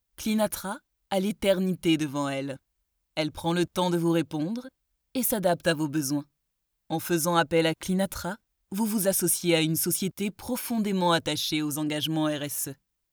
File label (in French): Voix off Snob; Cleanatra